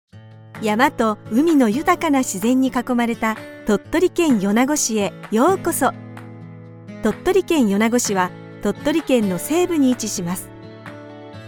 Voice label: Japanese audio guides